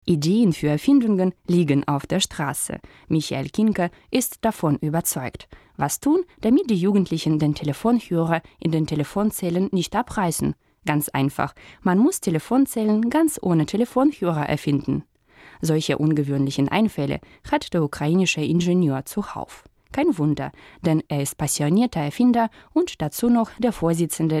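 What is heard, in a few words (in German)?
Stimmprobe deutsch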